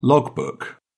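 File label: "log book", British English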